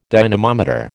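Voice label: "dynamometer", American English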